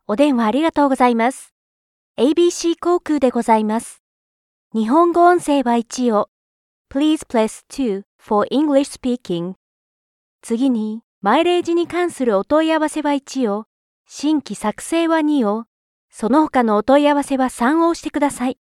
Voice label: japanese ivr

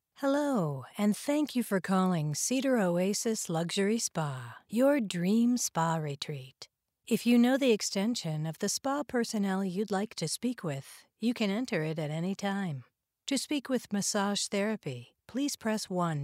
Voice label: english american ivr

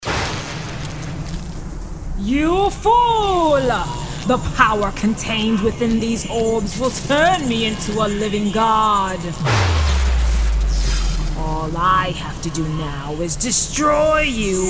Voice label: english american video games

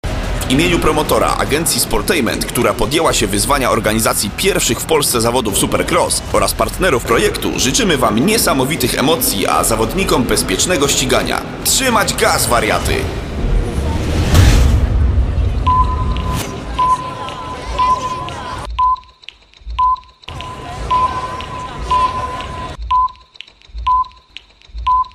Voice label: polish tv shows

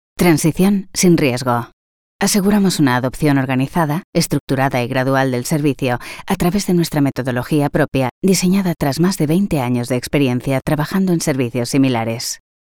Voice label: spanish e-learning

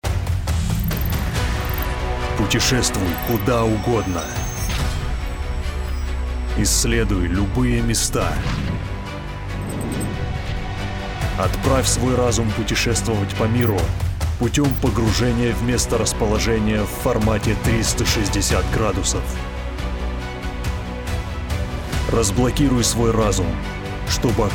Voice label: russian promos

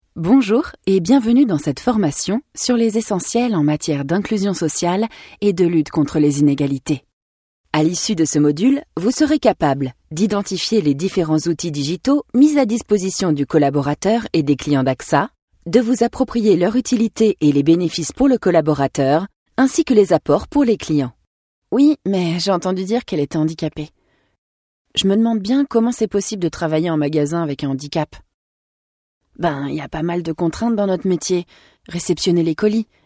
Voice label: french e-learning

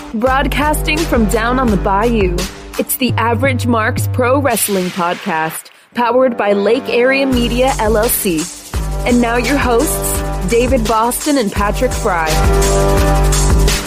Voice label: english american podcasts; professional bilingual (English and Spanish) voice actor

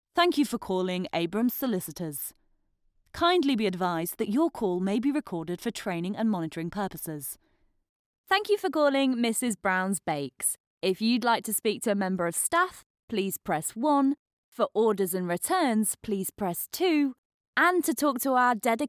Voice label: english british ivr

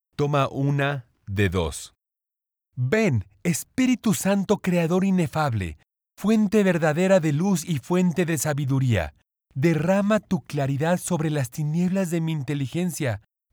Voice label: spanish latin american animation

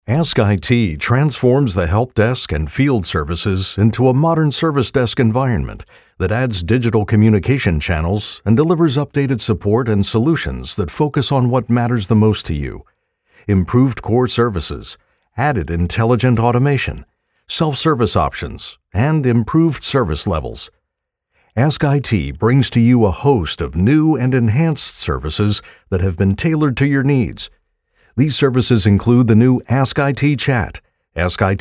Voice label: english american corporate videos

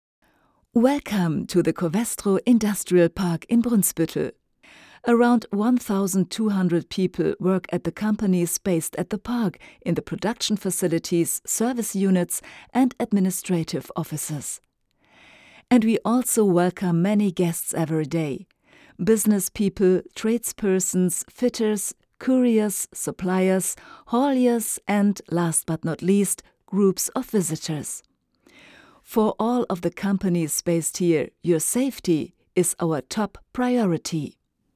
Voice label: english corporate videos

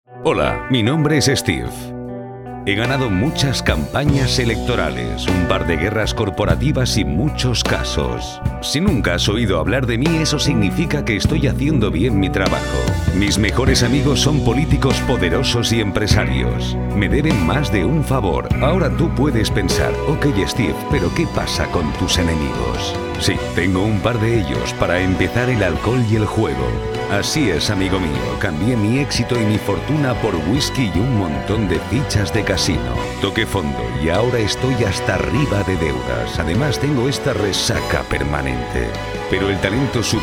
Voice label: Spanish corporate videos

